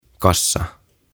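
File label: "Kassa", Finnish; Checkout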